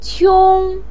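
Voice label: "qiong", Chinese pinyin, first tone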